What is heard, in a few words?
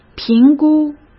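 "评估", Mandarin Chinese; chinese-flashcards; hsk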